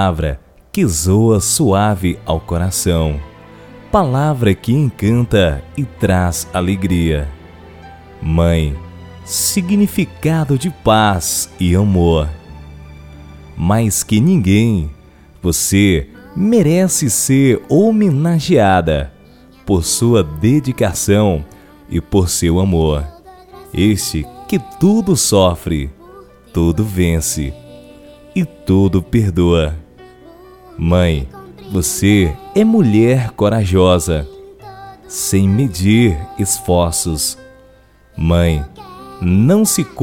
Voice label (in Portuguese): locutor poesia para mae